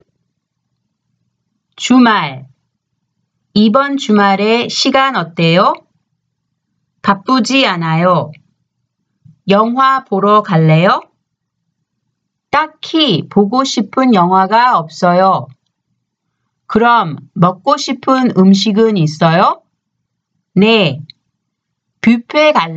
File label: conversation; K-Pop Volume 3